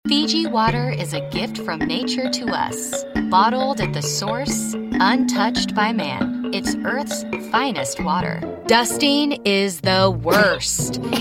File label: Commercial